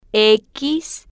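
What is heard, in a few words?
"x", spanish, female, slow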